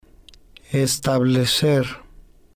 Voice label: "establecer", spanish